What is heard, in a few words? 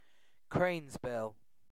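"cranesbill", British English; pronunciations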